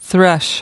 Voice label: "thresh", us